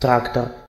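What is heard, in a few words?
tractor desc, Russian; tuxpaint-stamps; vehicles; farming; cartoon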